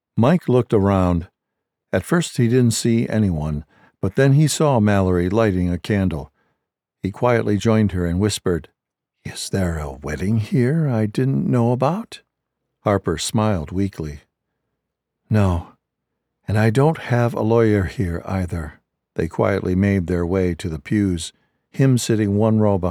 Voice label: Dialogue Male Female